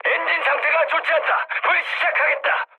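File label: Korean damaged engine mood high; speech; War Thunder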